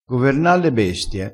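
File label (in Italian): "governa", crespinese dialettale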